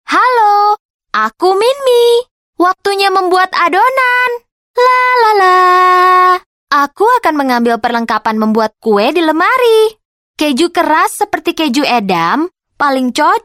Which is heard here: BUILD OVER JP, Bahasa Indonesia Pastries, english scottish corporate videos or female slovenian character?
Bahasa Indonesia Pastries